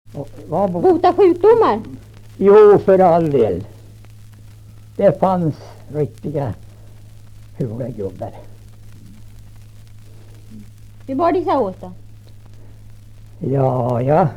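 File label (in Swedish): Lerbäck Närke, dialekter; Folkminnen; Svenska sagor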